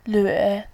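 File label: "lüe", Mandarin Chinese, third tone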